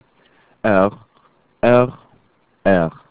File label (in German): "r", German alphabet